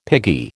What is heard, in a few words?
"piggy", American